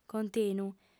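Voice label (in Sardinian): "continu", female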